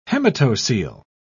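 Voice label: "hematocele", British English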